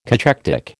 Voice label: "cachectic", American